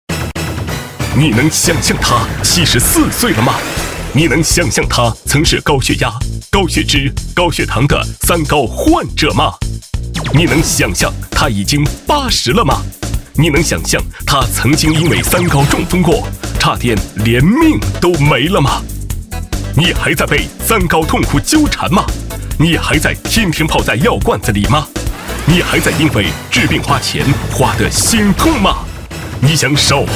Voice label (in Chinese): 男 电购类; 大力丸